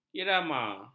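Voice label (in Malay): "irama", Malay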